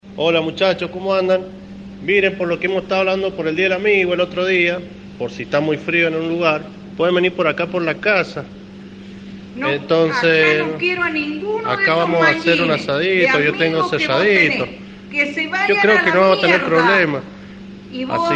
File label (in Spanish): Llamada mujer gritando atras